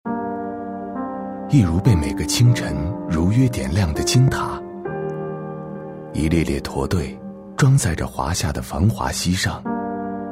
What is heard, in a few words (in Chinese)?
男 走心 解说词; 金塔